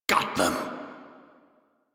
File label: Crew Gun Kill Fighter, Male; Dialog; en; Warframe; Railjack Crew; Arbiters Hexis